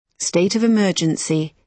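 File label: "state of emergency", British English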